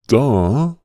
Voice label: human voice male duh as in stupid